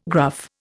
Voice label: "gruff", Indian